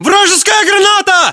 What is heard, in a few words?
inform incoming grenade, battlechatter, ru; localized english